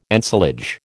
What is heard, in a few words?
"ensilage", American